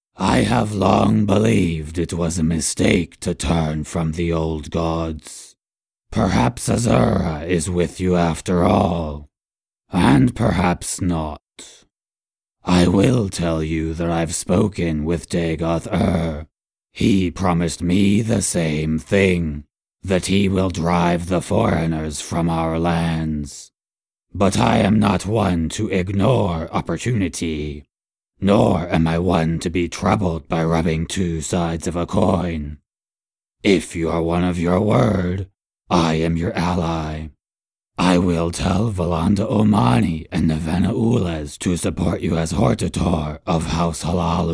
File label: robotic earthling dark elf audition